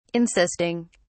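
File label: "insisting", British English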